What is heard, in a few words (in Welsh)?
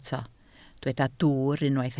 "dwwr", Welsh